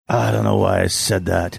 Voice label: I dunno why I said that gruff